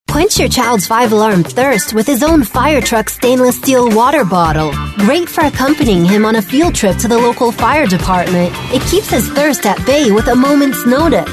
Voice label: english american voice over female commercial